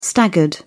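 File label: "staggered", British English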